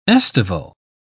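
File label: "aestival", English, uk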